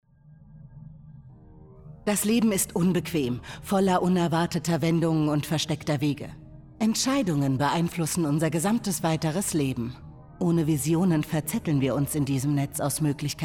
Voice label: german movie trailers